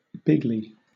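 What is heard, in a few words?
"bigly", British English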